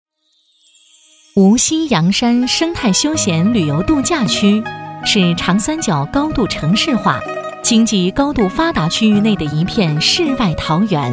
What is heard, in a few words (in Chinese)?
宣传片 旅游 度假区 亲切; 阳山度假区